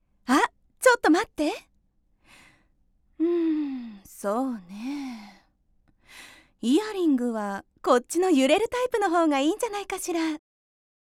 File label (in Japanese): セリフ2【可愛いお姉さん】; ◇出身地：長野県